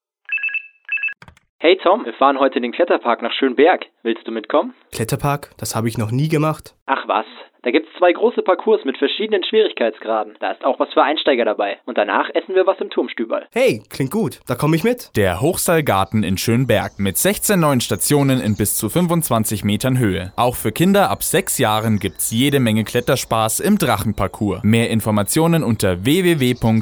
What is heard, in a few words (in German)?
Werbung; Erlebnis Akademie